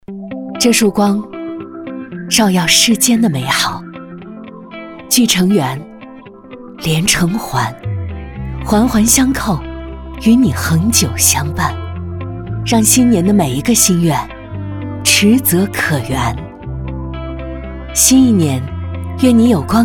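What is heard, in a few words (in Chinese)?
女 汽车类温柔力量质感旁白, 国语配音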